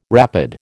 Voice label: "rapid", American